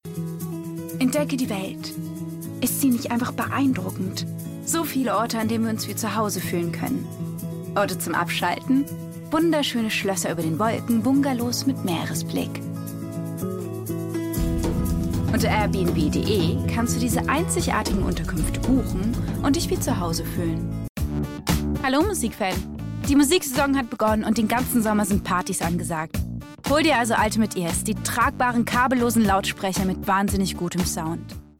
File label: German